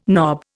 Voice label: "knob", Indian